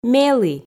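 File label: "мелі", Komi, female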